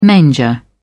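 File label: "manger", British English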